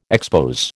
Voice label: "expose", American

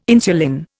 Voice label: "insulin", Indian

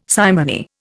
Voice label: "simony", Indian

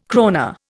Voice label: "krona", Indian

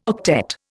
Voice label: "octet", Indian

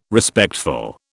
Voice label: "Respectful", British

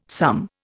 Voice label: "some", Indian

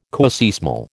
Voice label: "coseismal", American

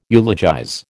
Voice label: "eulogize", American

